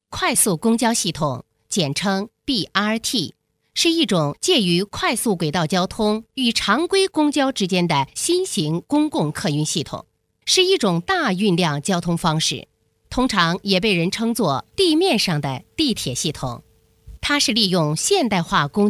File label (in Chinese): 女 多媒体 PPT 客运系统解说